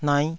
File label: "naih5", taiwanese